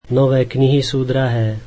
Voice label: slovak new books are expensive

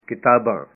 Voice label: "books", punjabi

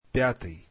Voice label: russian fifth

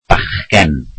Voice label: welsh boy

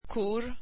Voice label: swedish cows

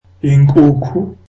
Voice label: zulu chicken

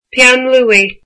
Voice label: "pencil", Irish

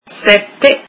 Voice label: seven, italian

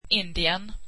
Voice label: swedish India

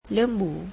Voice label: malay cow

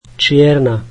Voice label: slovak black

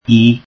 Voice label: welsh alphabet i

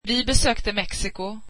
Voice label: we visited Mexico, swedish

